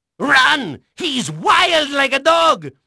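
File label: Spanish male, voice; Pirates Of The Caribbean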